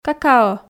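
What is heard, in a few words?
"какао", Komi, female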